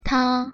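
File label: pronunciation "他", Chinese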